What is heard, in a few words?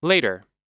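"later", US English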